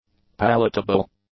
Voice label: "palatable", English; adjectives